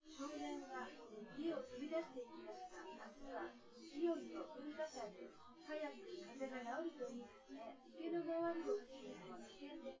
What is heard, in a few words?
female synth conv; SiSEC10; underdetermined